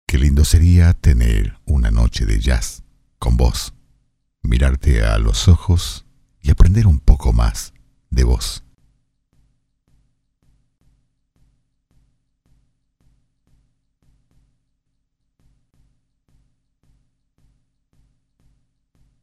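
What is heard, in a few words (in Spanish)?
Lectura romantica en español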